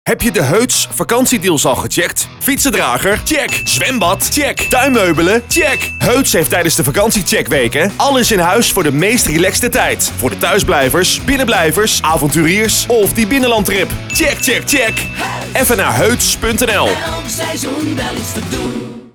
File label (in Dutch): HOOFDCOMMERCIAL met muziek